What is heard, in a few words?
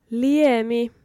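Finnish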